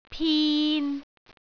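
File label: "pin3", Cantonese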